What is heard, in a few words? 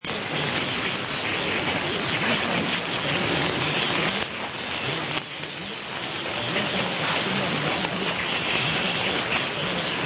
783 Arabic